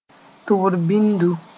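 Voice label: "tʋbr bĩndu", Mooré; earwax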